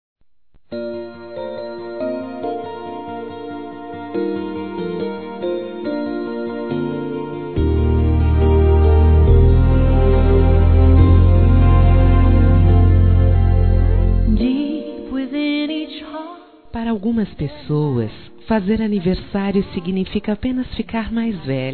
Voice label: NEUTRO (F)

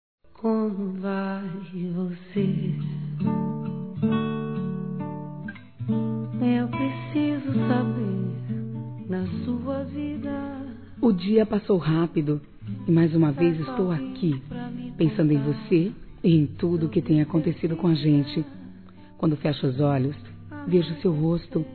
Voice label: BOA NOITE, fem; Bom dia boa tarde boa noite

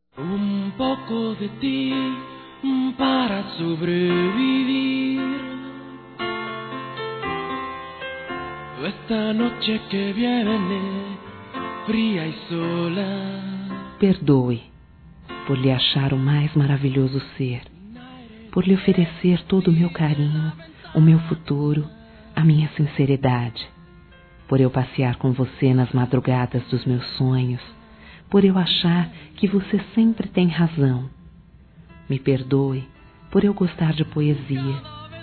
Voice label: Desculpas Por Te Amar Femin; Telemensagens; Telemensagens 2017

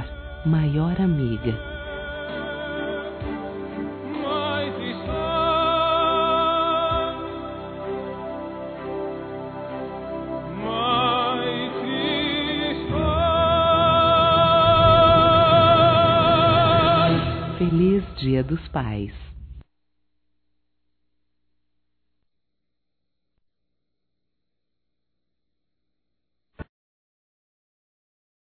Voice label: MÃE VOCÊ É UM PAI, fem; Telemensagens 2017

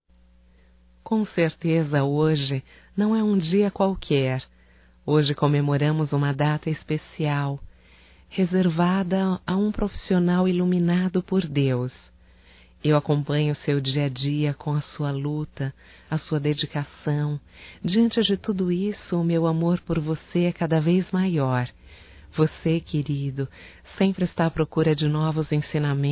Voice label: MéDICO ROMANTICO ( F ); Profissionais; fem; Telemensagens 2017

